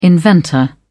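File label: "inventor", British English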